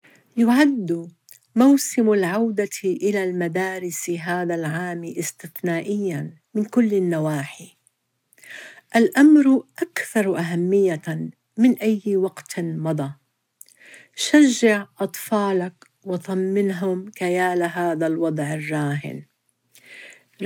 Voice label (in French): Message ARAB